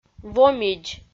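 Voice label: "вомидз", Komi, female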